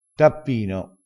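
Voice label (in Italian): "tappino", dialetto crespinese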